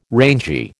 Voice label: "rangy", American